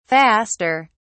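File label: "faster", British English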